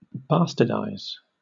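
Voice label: "bastardize", British English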